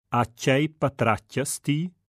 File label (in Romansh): A tgei patratgas ti, Rätoromanische Hörprobe; Soundproben